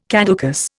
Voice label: "caducous", Indian

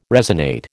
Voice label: "resonate", American

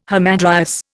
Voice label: "hamadryas", Indian

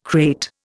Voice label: "krait", Indian

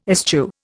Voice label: "eschew", Indian

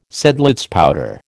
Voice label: "Seidlitz powder", American

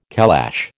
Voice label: "calash", American

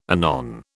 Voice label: "anon", British

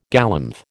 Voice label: "galumph", American

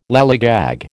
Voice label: "lallygag", American

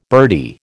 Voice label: "birdie", American

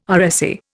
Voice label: "RSA", Indian

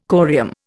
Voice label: "corium", Indian

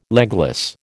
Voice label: "legless", American

